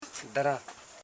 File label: "da ren", Koro house parts